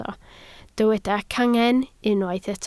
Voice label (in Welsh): "cangen" Welsh